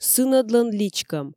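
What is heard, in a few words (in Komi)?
сынӧдлӧн личкӧм, Komi, female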